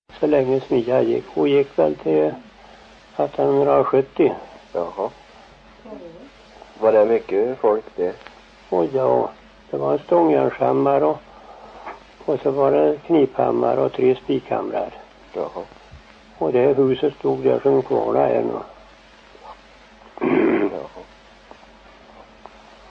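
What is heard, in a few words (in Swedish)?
Sillerud Värmland, dialekter; Folkminnen; Svenska sagor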